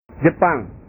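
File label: "jepang", Indonesian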